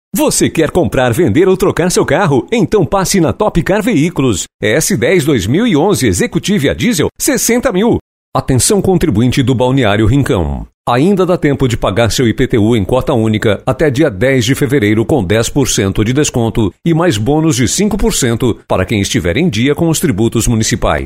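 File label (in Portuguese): VOZES MASCULINAS, Padrão Institucional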